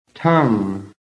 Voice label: "TONGUE", american